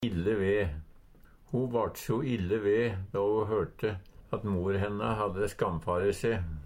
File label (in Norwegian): ille ve, Numedalsmål